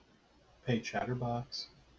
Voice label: hey chatterbox, en us